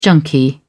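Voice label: "junkie", British English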